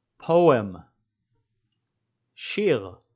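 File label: "poem" English, "שיר" Hebrew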